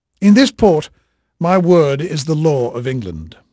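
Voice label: English male, voice; Pirates Of The Caribbean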